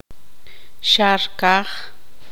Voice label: tzutujil; cielo azul